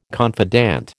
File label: "confidant", American